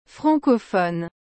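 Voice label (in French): "francophone", French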